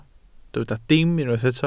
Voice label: "dim", Welsh